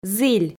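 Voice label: "зіль", Komi, female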